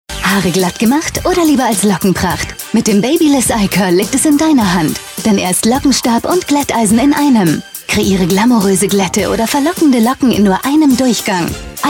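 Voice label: German cheerful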